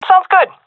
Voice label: agree, fem; vo; agents; Source Model Packs; Counter Strike Model Pack; CS2

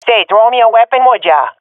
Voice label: request weapon, swat fem; vo; agents; Source Model Packs; Counter Strike Model Pack; CS2

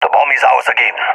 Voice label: see bomb pickup, balkan; vo; agents

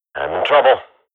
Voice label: radio bot under fire, swat; Counter Strike Model Pack; CS:GO

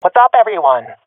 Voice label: request report, swat fem; vo; Counter Strike Model Pack; CS:GO

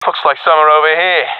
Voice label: sees multiple enemies, professional; vo; Counter Strike Model Pack; CS:GO

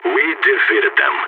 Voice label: radio bot end clean, balkan; vo

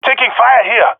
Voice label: taking fire, jungle male epic; Source Model Packs; Counter Strike Model Pack; CS2

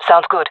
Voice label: agree, fem; player vo jungle fem

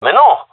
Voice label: disagree, male; vo

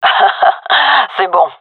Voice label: compliment, fem; vo

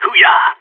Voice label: nice shot, player vo seal; Counter Strike; CS:GO

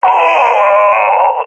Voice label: death, balkan; vo; agents

